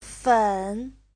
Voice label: "fen3", chinese

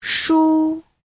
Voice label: "shu1", Chinese

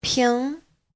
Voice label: "ping2", Chinese

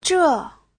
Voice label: "zhe4", chinese

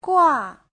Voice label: "gua4", chinese; Giáo trình Hán ngữ

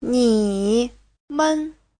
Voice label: "ni3men5", Chinese

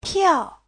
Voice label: "piao4", Chinese

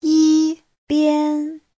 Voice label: "yi1 bian1", Chinese; 一边; Giáo trình chuẩn HSK3

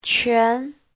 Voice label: "quan2", chinese

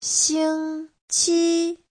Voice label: "xing1qi1", Chinese

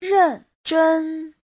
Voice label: "ren4zhen1", Chinese

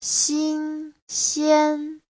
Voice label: "xin1xian1", Chinese

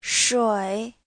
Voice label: "shui3", Chinese

